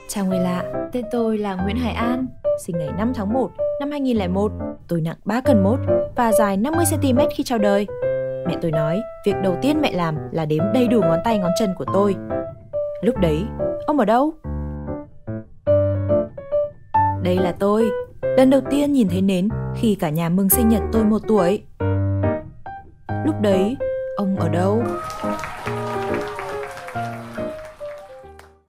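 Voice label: Vietnamese, female, Storyteller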